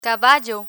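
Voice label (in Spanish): "caballo", Spanish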